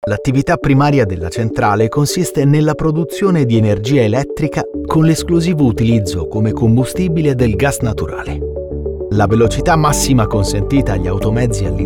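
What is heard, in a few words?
Italian, male, E learning Explainer Module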